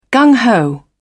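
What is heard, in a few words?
"gung ho", British English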